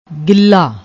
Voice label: "gilla", Punjabi; ਗਿੱਲਾ